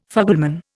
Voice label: "fugleman", Indian